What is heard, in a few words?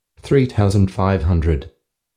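altitude 3500, Australian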